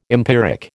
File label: "empiric", American